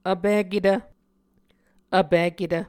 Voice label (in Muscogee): "vpiketv", muscogee